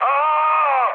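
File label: Serbian damaged pilot mood low, speech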